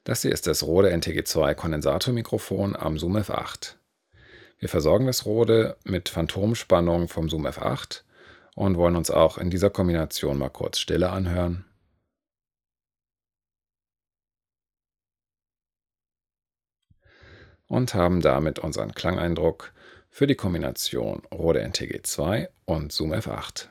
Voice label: Rode NTG2 Zoom F8 denoise; Zoom F8 Audioaufnahmen mit MKE2 Lavalier (G3), Rode NTG2 und Reporter (dynamisch)